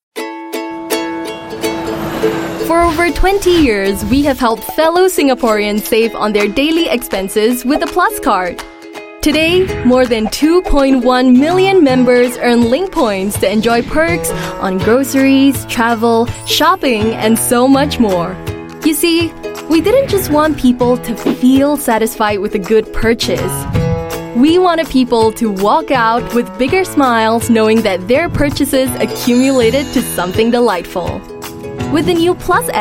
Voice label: Asian English App Natural; NTUC Plus

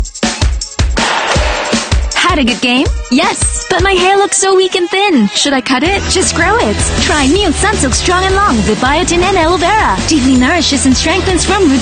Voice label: Asian English; Sunsilk

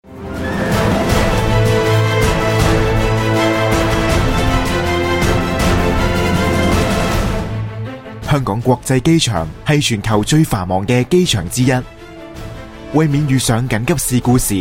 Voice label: Cantonese; The Airport Authority Hong Kong

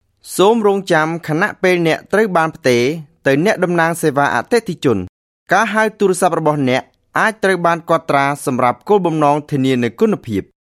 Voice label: Khmer Male IVR

